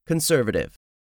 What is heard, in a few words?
"conservative", english; 3000 words